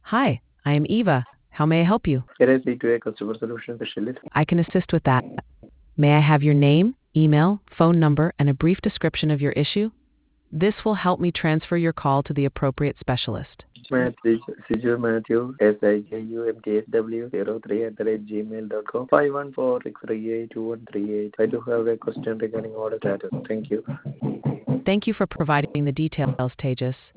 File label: conversation